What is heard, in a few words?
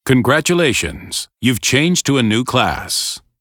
tutorial voice; lineage2